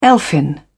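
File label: "elfin", British English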